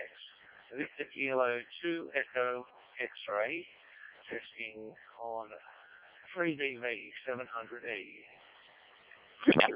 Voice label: decoded speech, parrot